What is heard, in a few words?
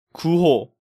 "Guho", Korean